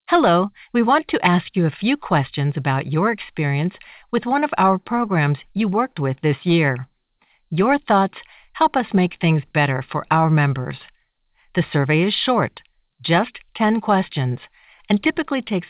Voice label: ivr u-law, eLearning Voiceovers Professional Training & Instructional Narration; IVR Sample File; IVR Voiceover & Phone System Messages